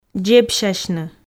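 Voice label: "дзебсясьны", Komi, female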